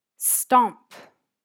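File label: "stomp", English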